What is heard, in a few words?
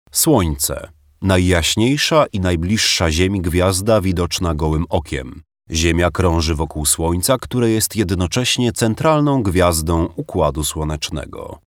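Polish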